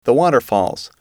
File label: "waterfalls", English